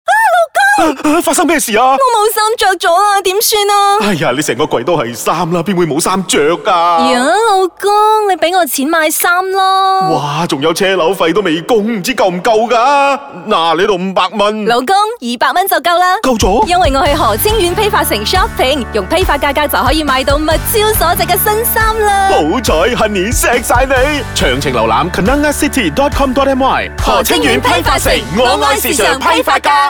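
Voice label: Chinese; Kenanga; Branding 2016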